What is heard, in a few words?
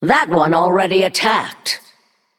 Female Naga ERROR MINION ATTACKED